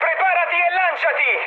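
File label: Italian bailout mood high, speech; War Thunder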